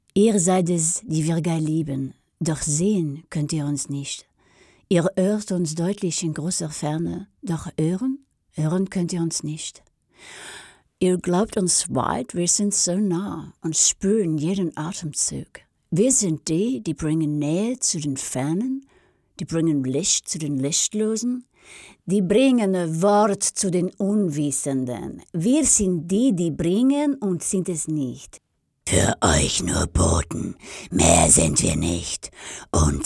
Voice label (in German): charge, frauen